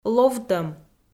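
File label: "ловтӧм" Komi, female; kpv audio dict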